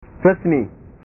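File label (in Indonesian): "resmi", Indonesian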